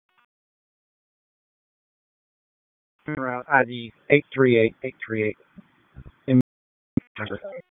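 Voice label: Raleigh Police Southeast District Dispatch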